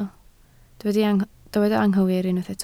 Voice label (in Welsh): "anghywir", Welsh